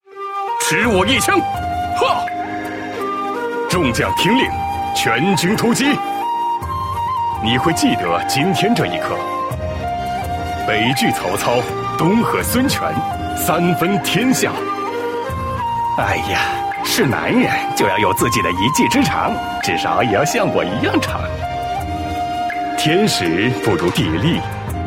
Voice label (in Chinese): qingnian